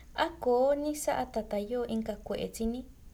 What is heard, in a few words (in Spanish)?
Reaccion alergica, San Juan Mixtepec San Juan Cahuayaxy